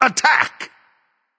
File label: vox attack; smite; ra; voicepack